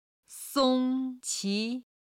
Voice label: "song1 qi2", Chinese